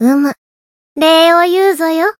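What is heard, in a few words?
healed, Japanese; leviachan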